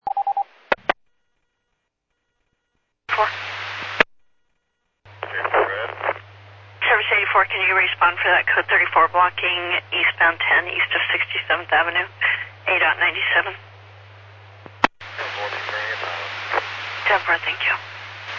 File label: Medevac, scanner; NativeAirAmb